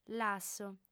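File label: "lasso", Sardinian, female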